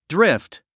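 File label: "drift", English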